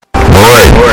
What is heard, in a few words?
BOI earrape